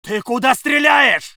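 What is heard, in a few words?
voice soviet infantry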